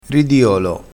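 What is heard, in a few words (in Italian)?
"ridiolo", dialetto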